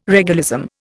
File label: "regalism", Indian